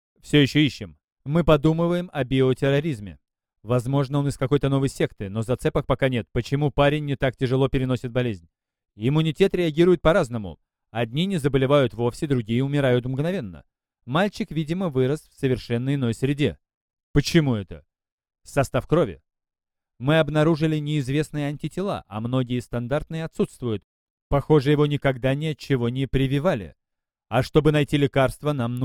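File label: Russian, Lithuanian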